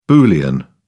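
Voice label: "boolean", British English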